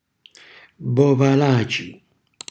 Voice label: "bovalaci", Calabrese

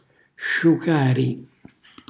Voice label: "sciucari", Calabrese; cucina calabrese

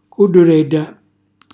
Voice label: "cullurella", Calabrese; ciambella

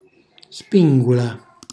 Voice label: "spingula", Calabrese; cucina calabrese

